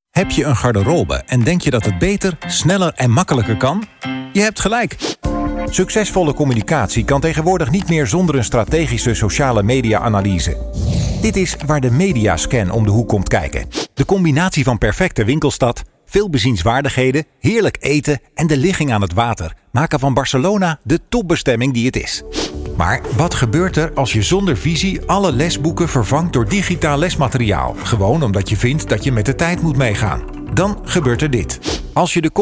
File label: M, DU NL, corp